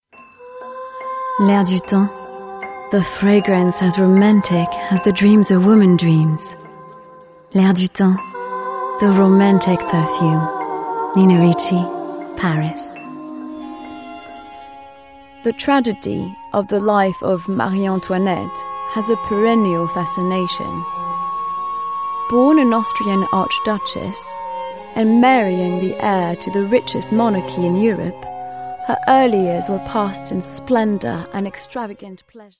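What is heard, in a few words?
French English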